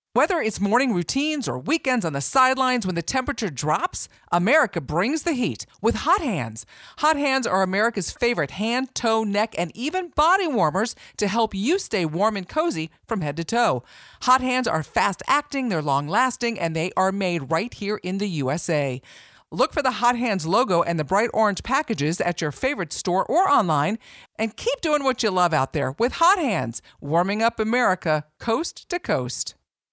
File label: female; HOT HANDS